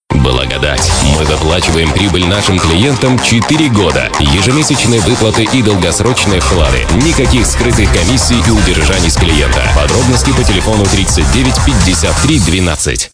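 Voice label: Russian Male Energetic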